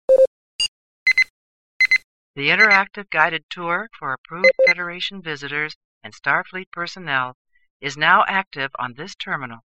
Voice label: Guided Tour; Star Trek